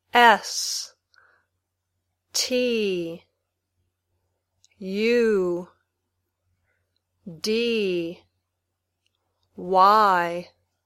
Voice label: Spelling, Basic English; Spell (by saying the letters in English) some words from the 1000 most common words list.; Beginning Level